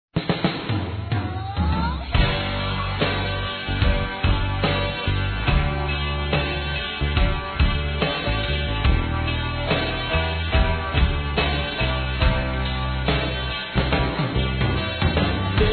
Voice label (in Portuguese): Aniversário Sobrinha Feminino; Aniv Familiar